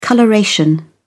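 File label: "coloration", British English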